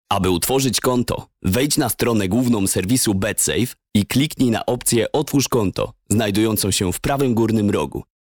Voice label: explainer